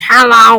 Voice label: weird hello